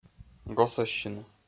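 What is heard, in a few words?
"госӧссьыны", Komi-Zyrian, male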